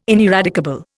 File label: "ineradicable", Indian